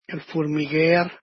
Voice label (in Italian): "el furmigher", Dialetto di Albosaggia; Furmìga; Formica